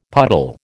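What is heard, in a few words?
"puddle", American English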